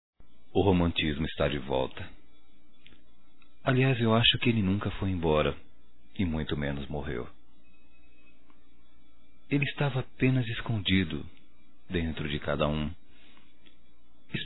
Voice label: voz Anivers. Namoro ou Casamento, masc; Telemensagens; Só Voz; Telemensagens 2017

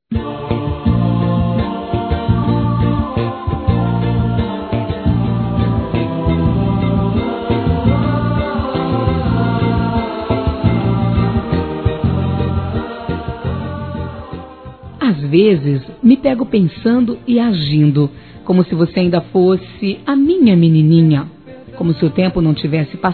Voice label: SOBRINHA F

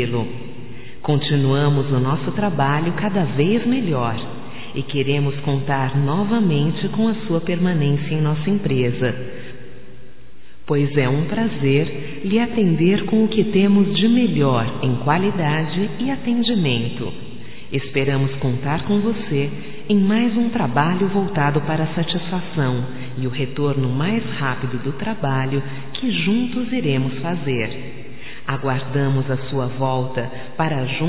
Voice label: voz Estamos Sentindo Sua Falta, fem

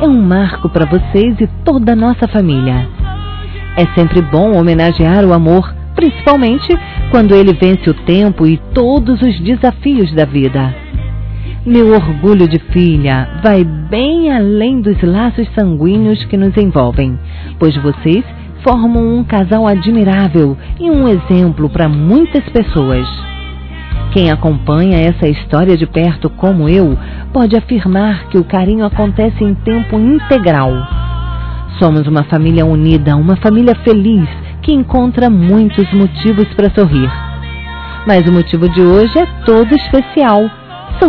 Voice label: BODAS DE PRATA DOS PAIS F; Aniversários; ANIVERSARIO romantico; fem

